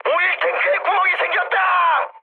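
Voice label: Korean damaged oil mood high, speech; War Thunder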